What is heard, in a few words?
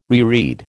"reread", American